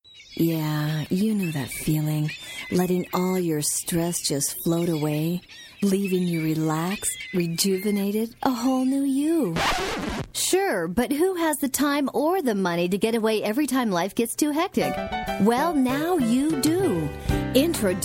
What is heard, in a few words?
Commercial, Female voices